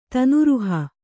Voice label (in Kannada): "ತನೂರುಹ", Kannada